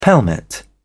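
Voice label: "pelmet", English, British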